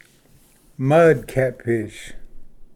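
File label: "mud catfish", English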